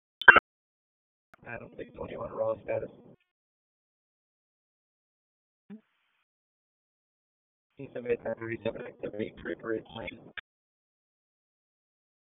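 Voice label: Police Southwest District Dispatch, p25; Raleigh; wake p25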